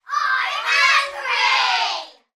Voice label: human group children x6 uk aged 3 to 8 shout im angry